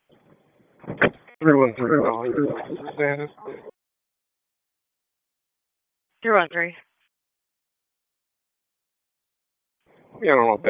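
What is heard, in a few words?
Police Northeast District Disp, radio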